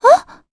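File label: Vox Japanese; Cassandra; kingsraid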